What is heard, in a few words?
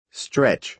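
"stretch", American English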